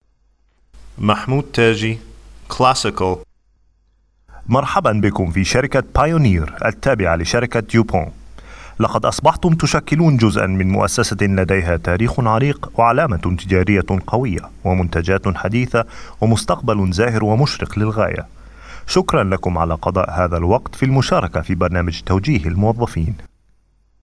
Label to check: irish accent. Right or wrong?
Wrong